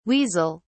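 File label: "weasel(s)", British English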